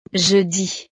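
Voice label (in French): "je dis", French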